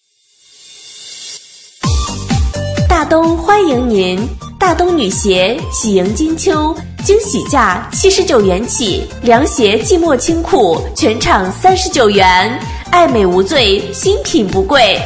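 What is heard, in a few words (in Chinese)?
女促销 女鞋广告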